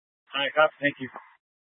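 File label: Troop 2A Dispatch, scanner audio recording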